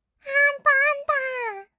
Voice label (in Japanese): 「あんぽんたーん」, kawaii voice; 1200 kawaii voice!!; 色々なワンショット